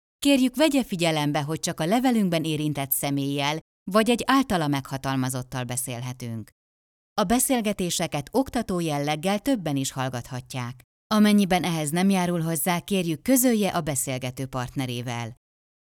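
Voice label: Hungarian, female, IVR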